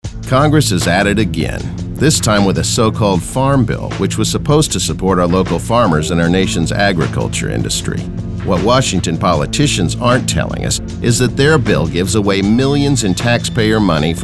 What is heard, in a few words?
Radio Spot